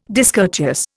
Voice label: "discourteous", Indian

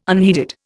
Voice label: "unheeded", Indian

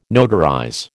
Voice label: "notarize", American

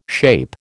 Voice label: "SHAPE", American

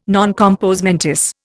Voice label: "non compos mentis", Indian

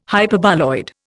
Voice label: "Hyperboloid", Indian

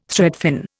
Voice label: "threadfin", Indian

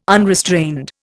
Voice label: "unrestrained", Indian

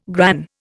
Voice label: "gran", Indian

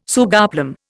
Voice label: "sugarplum", Indian

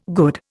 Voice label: "good", Indian

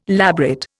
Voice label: "labret", Indian

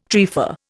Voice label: "trefa", Indian

